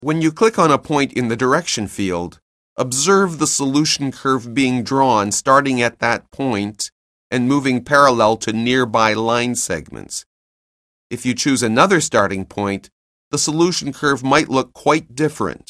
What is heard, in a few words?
narration; CALCULUS 7E; cengage